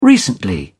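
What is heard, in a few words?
"recently", British English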